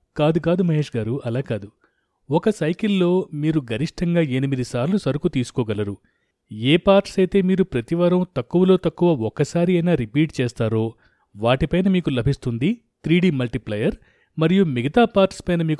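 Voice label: Telugu, male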